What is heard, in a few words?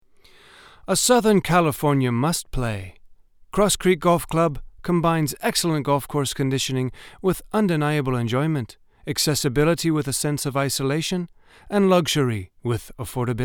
voiceovers; Cross Creek Golf Club (male)